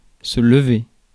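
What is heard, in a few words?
"se lever", French; Mots francais phonemes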